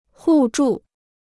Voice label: "互助", Chinese

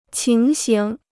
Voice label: "情形", Chinese

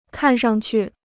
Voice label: "看上去", Chinese

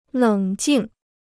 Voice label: "冷静", Chinese

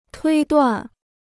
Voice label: "推断", Chinese

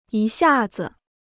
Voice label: "一下子", Chinese

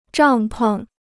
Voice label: "帐篷", Chinese

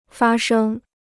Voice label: "发生", Chinese

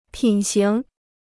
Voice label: "品行", Chinese

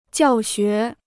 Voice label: "教学", Chinese

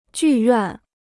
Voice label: "剧院", Chinese

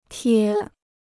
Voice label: "贴", Chinese

